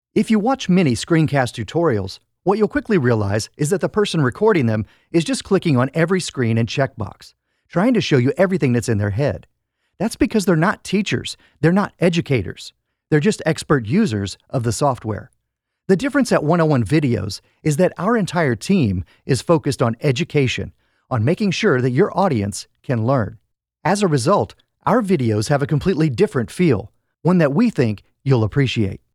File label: Voiceover